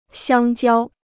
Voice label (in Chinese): "香蕉", Chinese